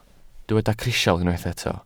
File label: "crisial", welsh